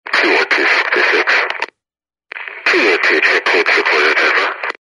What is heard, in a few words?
walkie talkie garbled speech